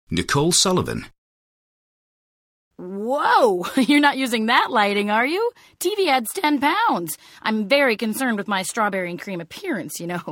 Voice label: commercial; Innovative LA